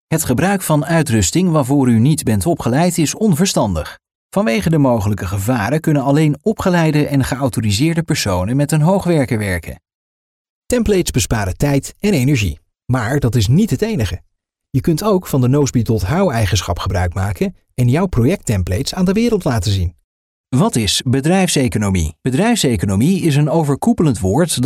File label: male dutch voice over talent narrative